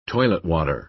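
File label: "toilet water", American English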